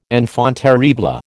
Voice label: "enfant terrible", American

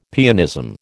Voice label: "pianism", American

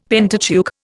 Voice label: "Pentateuch", Indian; Dictionary

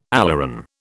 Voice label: "aleuron", British

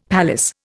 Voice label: "palace", Indian; Dictionary

